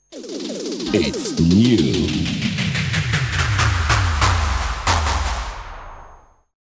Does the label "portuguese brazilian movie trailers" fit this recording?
No